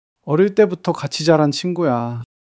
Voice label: sadness